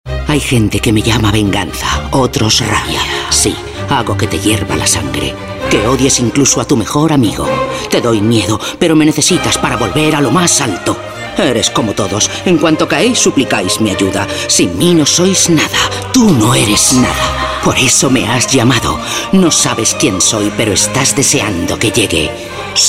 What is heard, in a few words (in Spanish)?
Femenino